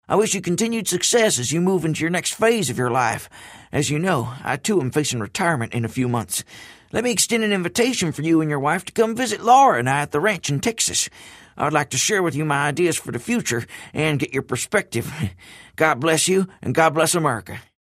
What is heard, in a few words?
impressions